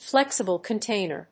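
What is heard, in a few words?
"Flexible container", English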